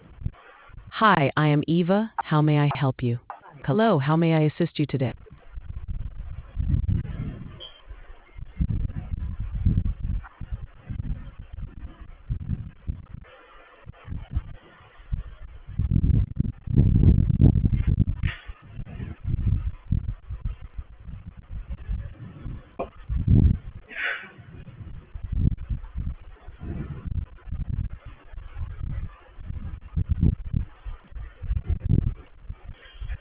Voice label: conversation